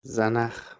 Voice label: "zanakh", Persian

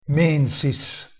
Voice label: "mensis", Latin

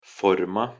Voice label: "forma", Anglo Saxon

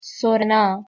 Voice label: "sorna", Persian

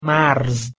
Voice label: "marz", Persian

